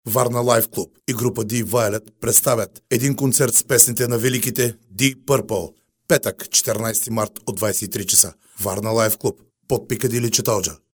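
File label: Bulgarian, male, voice over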